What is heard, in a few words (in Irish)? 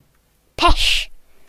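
"pea", Irish; gcompris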